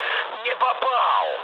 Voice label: bomb failure, mood med, speech ru; War Thunder

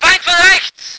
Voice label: voice ger vehicle

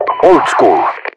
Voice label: title oldschool; mpvoice

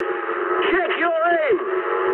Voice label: eng player friendly fire mood high, speech eng

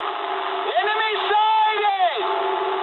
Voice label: eng enemy detected mood high, speech eng

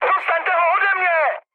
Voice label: aircraft damaged, speech cze, mood high

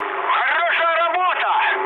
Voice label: player killed enemy, mood high, speech ru

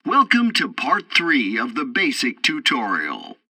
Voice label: tutorial bomber intro, english; War Thunder

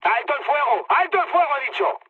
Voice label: player friendly fire, mood high, speech; War Thunder

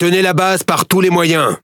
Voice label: Speech Quick Commands Ally Base, FR; World Of Warships

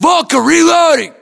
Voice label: US inform reloading, battlechatter, english

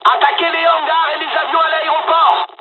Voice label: japanese first wave, dialogs french; War Thunder

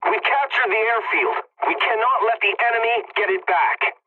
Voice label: pilot capture ground ally, english; War Thunder

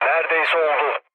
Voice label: bomb failure, mood low, speech tur; War Thunder

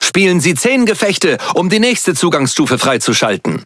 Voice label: Speech Access Level Unlock, DE; World Of Warships

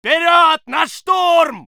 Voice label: voice sov infantry

